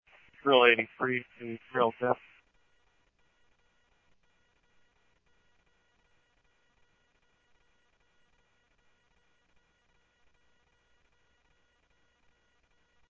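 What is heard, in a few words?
police department, scanner audio trunk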